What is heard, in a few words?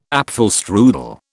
"apfelstrudel", British